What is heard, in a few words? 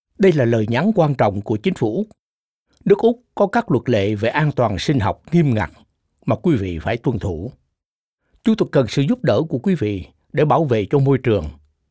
incoming passenger announcement vietnamese; Passenger announcement - Vietnamese 2021; The Department of Agriculture, Fisheries and Forestry; aircraft